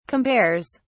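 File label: "compares", British English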